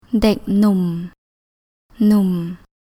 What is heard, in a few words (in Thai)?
"Dèk Nôom", Thai